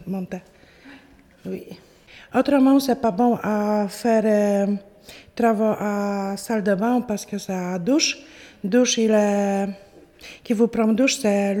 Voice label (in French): Femme bien mais pas contente bruit voisin